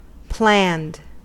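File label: "planned", American English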